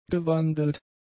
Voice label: "gewandelt", German; adjectives